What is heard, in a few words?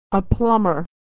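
"a plumber", American English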